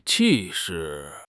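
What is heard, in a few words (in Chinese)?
"气势", Chinese; HSK6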